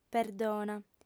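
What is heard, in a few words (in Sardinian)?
"perdona", female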